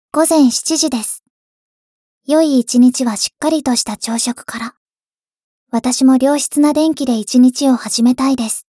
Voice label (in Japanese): 時報ボイス 電脳少女; ボイスコレクション